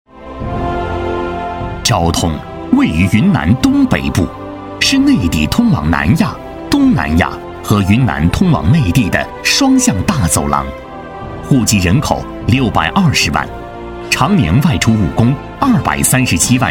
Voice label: 男 专题 禁毒工作; 昭通市

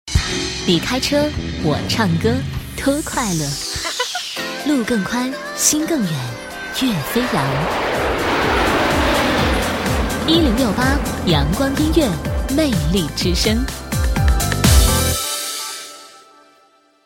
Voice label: 国语女声配音，栏目包装 音乐广播 频道形象

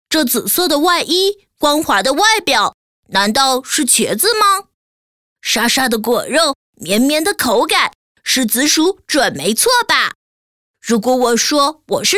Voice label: 童声 男童

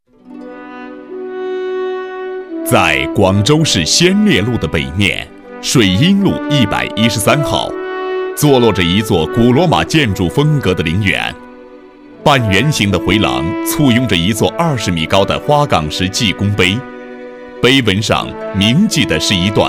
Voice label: 专题激情 抗战类录音,男; 干音; 新闻广告专题颁奖

